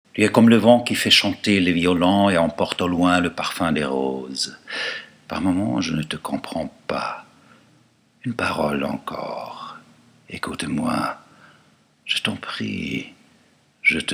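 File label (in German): Französisch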